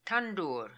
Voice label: "Tandoor", pronounciations; Fourth Way; Beelzebub